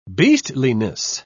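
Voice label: "beastliness", British English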